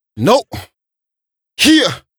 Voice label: Rap Do It Adlip B, hip-hop